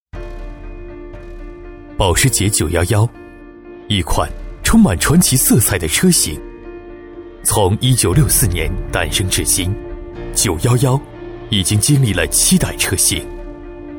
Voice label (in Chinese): 男 专题 产品宣传; 华为mate9